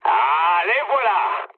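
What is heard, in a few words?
French enemy detected mood med; War Thunder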